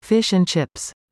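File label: "fish and chips", English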